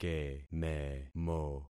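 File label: "kememo", concatenated syllables; Affixing experiment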